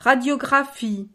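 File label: "radiographie", French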